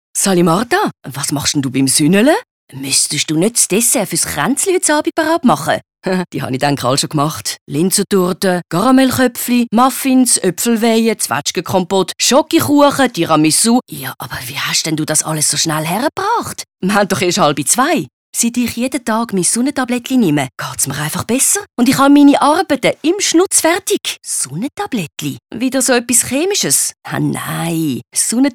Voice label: Spot Dialog CH-DE; sprecher; profi

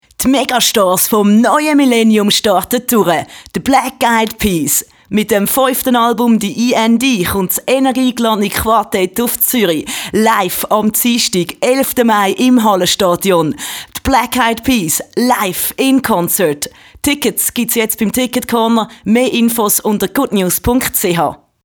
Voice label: Trailer, CH-DE

